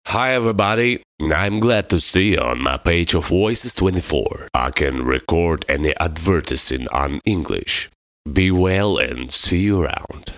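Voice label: English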